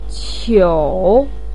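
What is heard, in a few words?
"qiu3", Mandarin Chinese, pinyin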